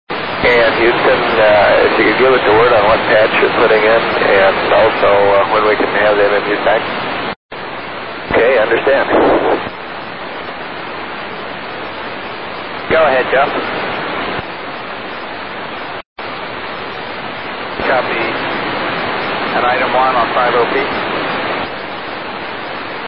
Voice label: shuttle comms; STS51f